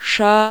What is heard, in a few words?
"shha", marathi